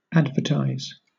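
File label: "advertise", British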